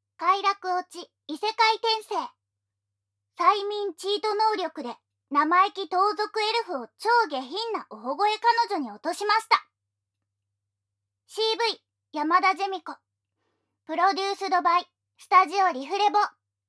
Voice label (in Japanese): タイトルコール, ASMR